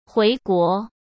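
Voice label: "回国", Mandarin Chinese; hsk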